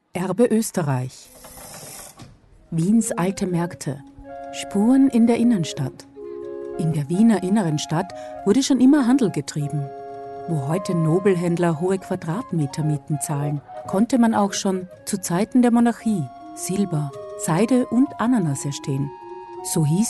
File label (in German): DOKU; Erbe Oesterreich